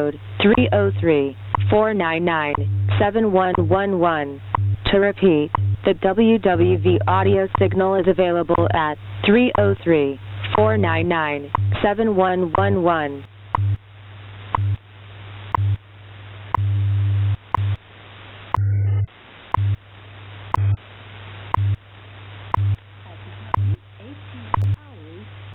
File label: WWV Fort Collins USA announce; radio